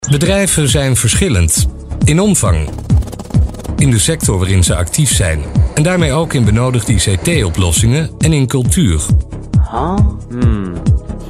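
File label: Dutch, male, narration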